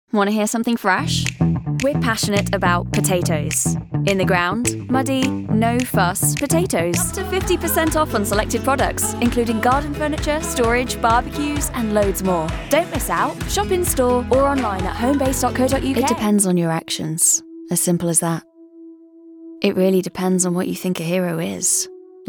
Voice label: Commercial